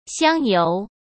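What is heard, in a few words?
"香油", Mandarin Chinese; hsk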